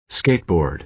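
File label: "skateboard", American English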